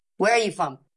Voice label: Voice Acting es F